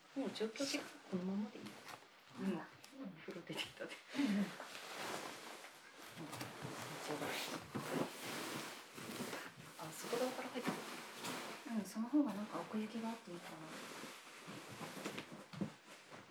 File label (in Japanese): 添い寝ボイスNG